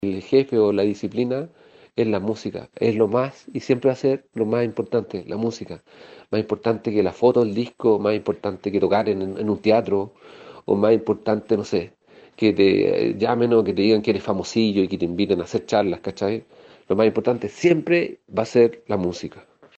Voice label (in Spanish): cuña